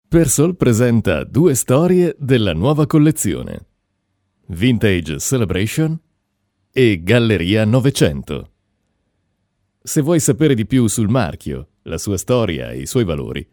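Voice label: Italian, male, commercial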